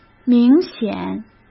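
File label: "明显", Mandarin Chinese; hsk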